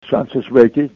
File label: it is sunny, russian